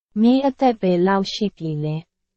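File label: Burmese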